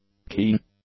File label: "keen", english; adjectives